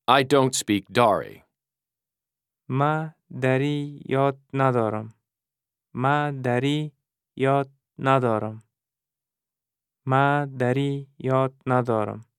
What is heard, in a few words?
I dont speak Dari (Dari); Combat Language